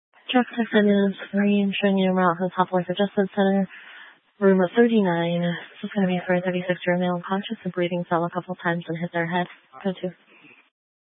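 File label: Fire Dispatch, scanner audio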